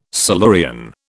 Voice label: "Silurian", British